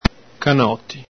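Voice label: "canoti", Veneto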